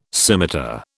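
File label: "scimitar", British English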